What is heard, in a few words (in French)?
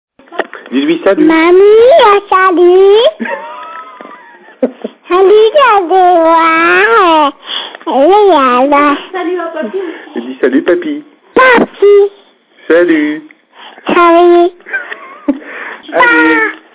repondeur